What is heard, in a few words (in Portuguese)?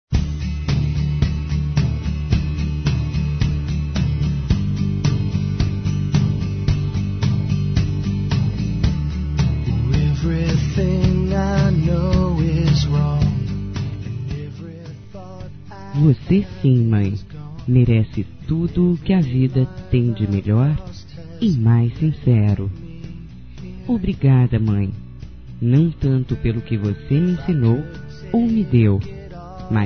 Dia das Mães Neutro Feminino; Telemensagens 2017